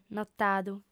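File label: "notadu" Sardinian, female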